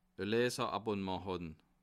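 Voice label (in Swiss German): "leserabonnement", Bas Rhin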